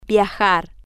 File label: "viajar", Spanish